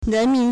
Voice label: "ren2 min2", Chinese

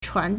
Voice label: "chuan2", Chinese

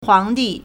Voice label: "huáng dì", Chinese

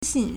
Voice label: "xin4", Chinese

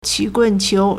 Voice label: "qu1 gun4 qiu2", Chinese

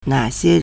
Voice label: "na3xie1", Chinese; 哪些 nǎxiē; pronoun; which; who; what

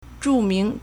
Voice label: "zhu4 ming2", Chinese

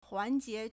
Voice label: "huan2 jie2", Chinese

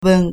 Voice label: "wen4", Chinese

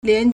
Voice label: "lian4", Chinese

